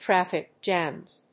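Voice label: "traffic jams", English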